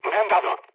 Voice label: aircraft destroyed mood med; War Thunder